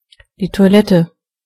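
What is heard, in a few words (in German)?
"toilet", German; gcompris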